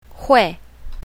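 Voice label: "hui4", Chinese pinyin, south, female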